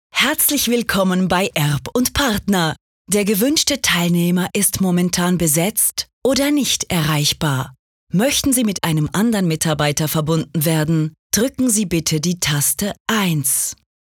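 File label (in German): TVA DE CH, Swiss German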